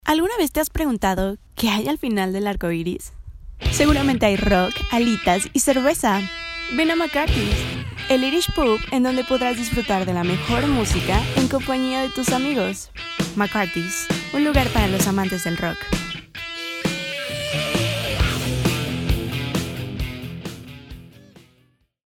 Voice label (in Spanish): Spot Publicitario; McCarthy´s Irish Pub